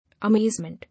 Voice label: "Amazement", English